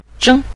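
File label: "zheng", Mandarin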